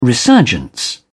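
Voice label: "resurgence", English, British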